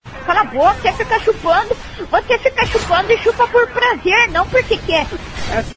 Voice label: rage; mw3